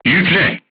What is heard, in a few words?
voice message load, crew tanks turkish